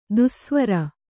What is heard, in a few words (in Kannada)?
"ದೆಸೆವರಿ", Kannada